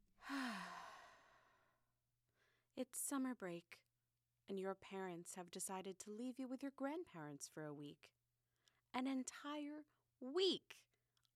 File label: Narrator; Choose-your-own-adventure game Narrator Audition